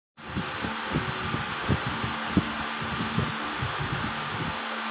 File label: Voice Note